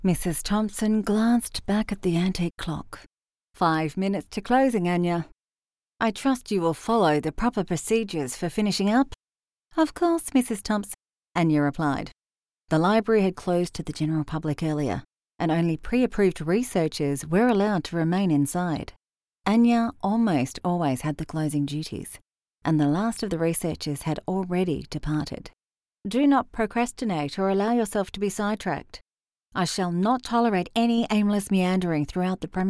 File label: english australian audiobooks, voice actors